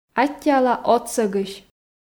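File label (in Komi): "аттьӧала отсӧгысь", Komi, female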